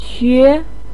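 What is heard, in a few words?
"que", Mandarin pinyin, tone 2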